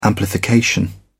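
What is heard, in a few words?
"amplification", British English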